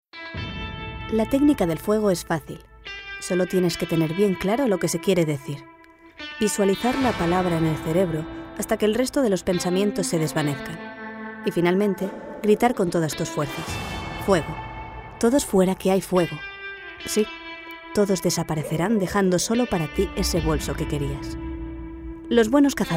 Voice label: female spanish comercial; wallmart; ideasonora